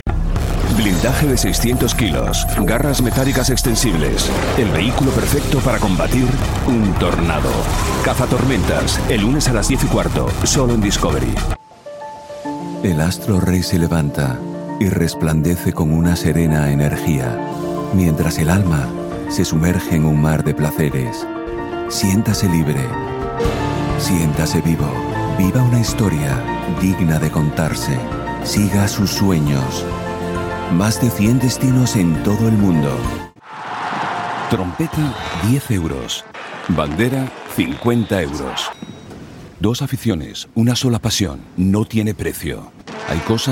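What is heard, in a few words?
European Spanish, general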